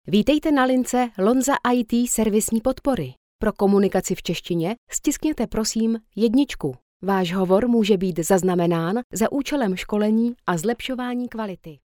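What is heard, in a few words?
Czech, female, IVR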